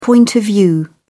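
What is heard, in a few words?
"point of view", British English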